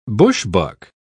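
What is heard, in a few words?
"bushbuck", British English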